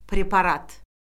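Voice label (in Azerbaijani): "preparat", Azerbaijani; Orfoqrafiya Lüğəti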